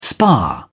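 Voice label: "spar", English, British English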